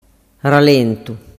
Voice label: "ralentu", dialettu

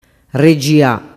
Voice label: "regia", dialettu

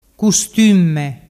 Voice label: "custumme", dialettu

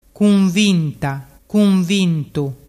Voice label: "cunvintatu", dialettu

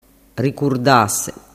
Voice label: "ricurdase", dialettu

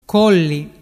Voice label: "colli", dialettu

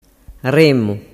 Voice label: "remmu", dialettu

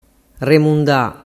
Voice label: "remunda", dialettu

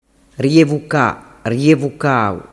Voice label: "rievuca rievucau", dialettu

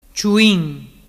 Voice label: "ciuin", dialettu

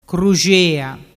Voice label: "cruxea", dialettu

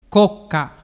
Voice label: "cocca", dialettu

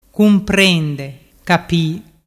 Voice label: "cumprendecapî", Vernazzese; Vocabolario Vernazzese - Italiano

